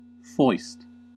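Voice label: "foist" US English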